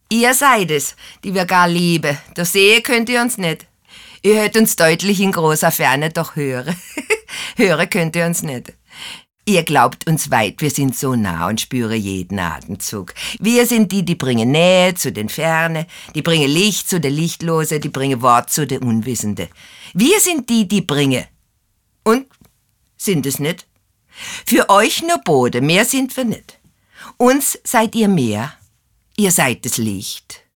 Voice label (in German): badisch Dialekt, frauen; sprecher